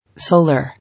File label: "Solar", English